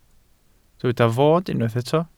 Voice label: "fod", Welsh

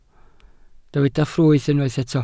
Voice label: "ffrwyth", Welsh

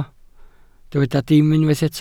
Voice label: "dim", Welsh

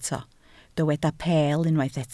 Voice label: "peel", Welsh

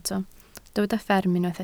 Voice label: "fferm", Welsh

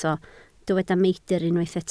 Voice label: "meidr", Welsh

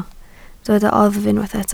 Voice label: "oddf", Welsh

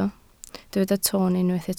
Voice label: "toon", Welsh

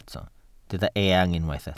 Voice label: "eang", welsh

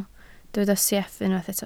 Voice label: "sieff", Welsh

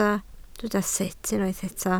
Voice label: "sut", Welsh

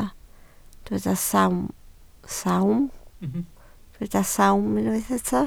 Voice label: "sawm", Welsh

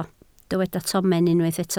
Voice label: "tomen", Welsh

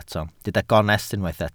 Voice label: "gonest", Welsh

